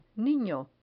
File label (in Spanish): "niño", Spanish